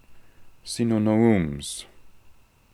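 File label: "Sinonoums", pronunciations; Fourth Way; Beelzebub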